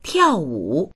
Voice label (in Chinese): "tiao4wu3", voices